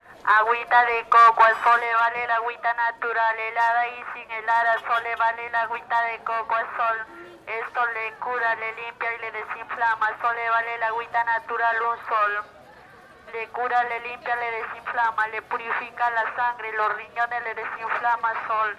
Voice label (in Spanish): VENDEDORA DE AGÜITA DE COCO CON MEGÁFONO MERCADO DE CHICLAYO